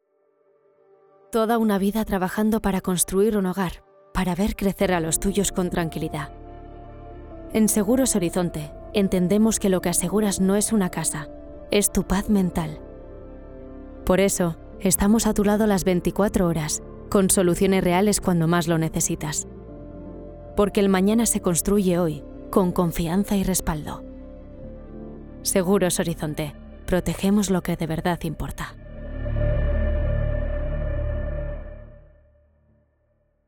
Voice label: Publi Castellano

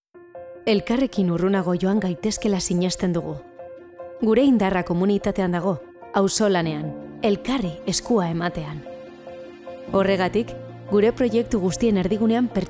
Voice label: Publi Euskera

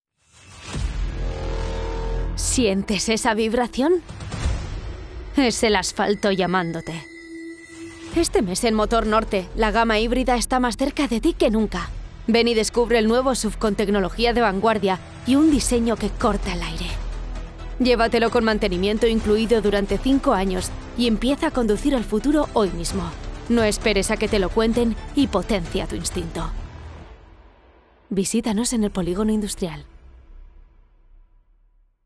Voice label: Publi Castellano

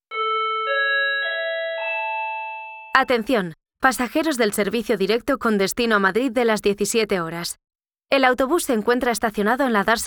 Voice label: Medios alternativos Castellano

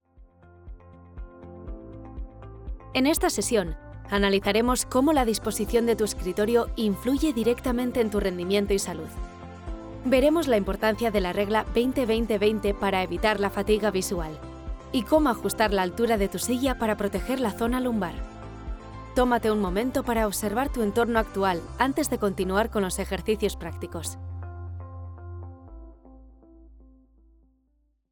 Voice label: E-Learning Castellano

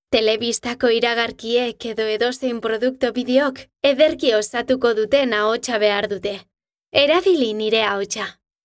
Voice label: mezcla PUBLI EUSK

